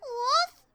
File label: Woof (question); Momiji